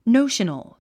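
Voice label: "notional", English word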